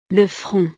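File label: "le front", French; partes del cuerpo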